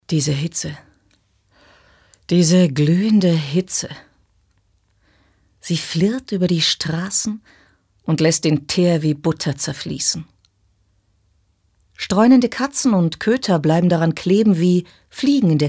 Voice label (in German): Schauspiel, Sanft